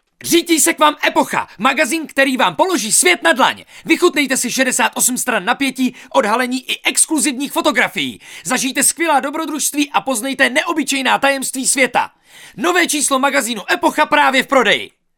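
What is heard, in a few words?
Male Czech commercial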